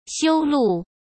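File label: "修路", Mandarin Chinese; hsk; chinese-flashcards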